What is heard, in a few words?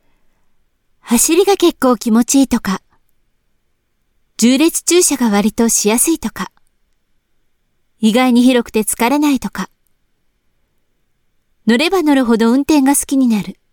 Japanese Voice Sample